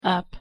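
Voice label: "up", English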